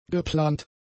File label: "geplant", German; adjectives